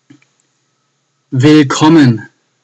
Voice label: Welcome German